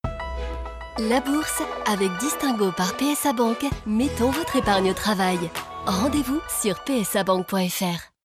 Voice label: billboard élégante convaincante; PSA BANQUE

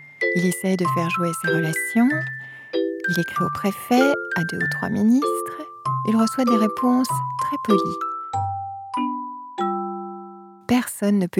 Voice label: RADIO Conte de Noël; ARTE; Sentiments distingués

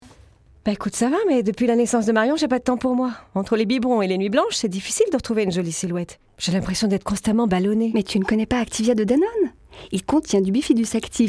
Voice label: dialogue joué

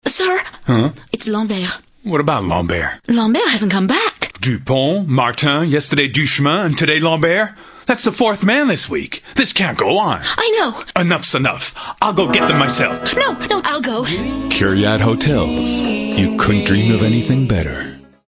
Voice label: humour signature voix off voice over; Kiriad

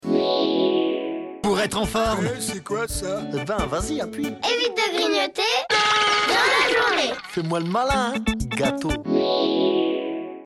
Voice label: Message Sanitaire Cartoon et voix; France TV

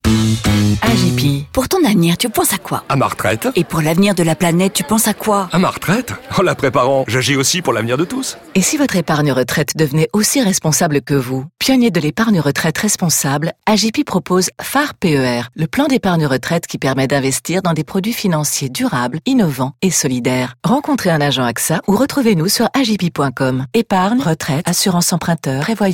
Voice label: Radio; AGIPI

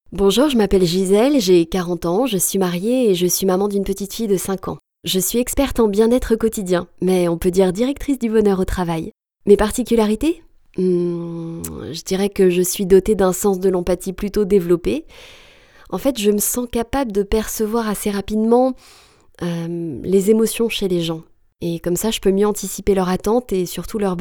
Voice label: naturelle témoignage testimonial

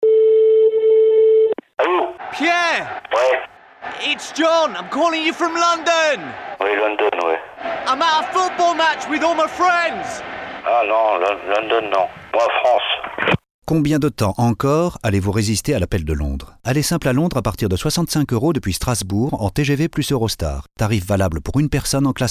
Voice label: RADIO gare; EUROSTAR; STRASBOURG; foot

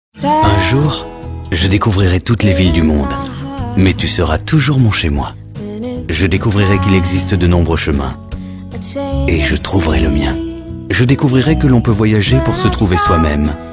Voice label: Pub TV; Chicco Trio Living 2011

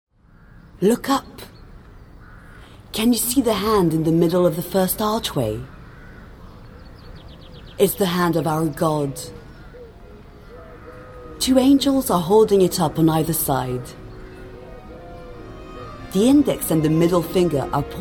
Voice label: Voix Anglaise; Musicaventure

